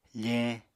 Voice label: "feñ", Apatani